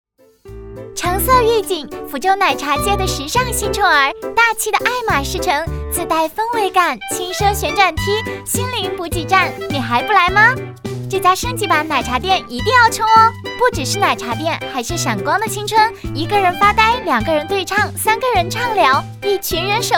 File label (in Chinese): 女,广告,轻快奶茶店,少女感